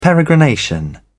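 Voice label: "peregrination", British English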